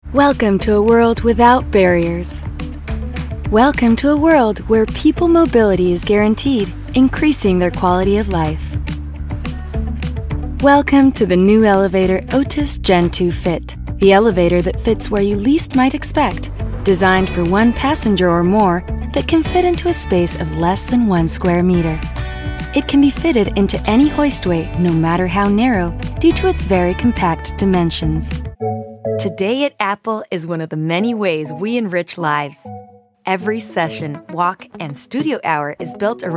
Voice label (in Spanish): Corporativa EN